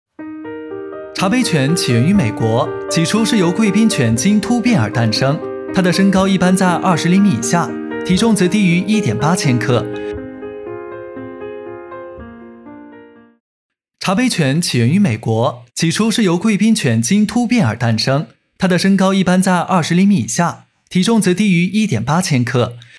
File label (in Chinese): 茶杯犬解说